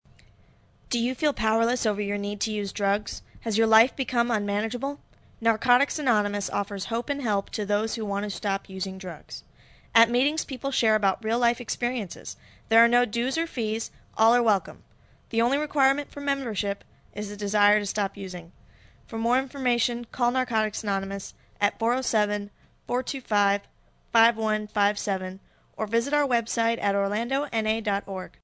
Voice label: Female